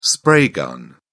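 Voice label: "spray gun", English, British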